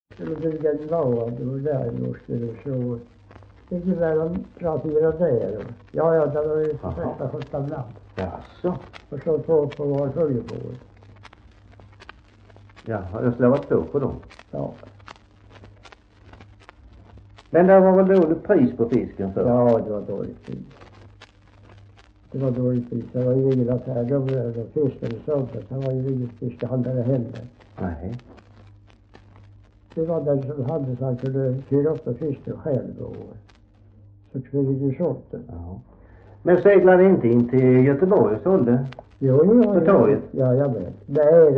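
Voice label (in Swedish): Värö Halland, dialekter svenska sagor; Folkminnen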